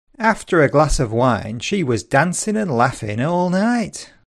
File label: ACCENT NORTHERN